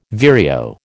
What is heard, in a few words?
"vireo", American